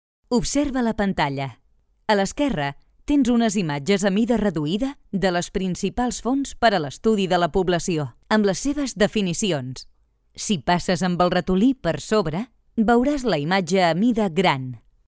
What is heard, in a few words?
female catalan voice over narrative